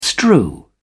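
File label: "strew", English, British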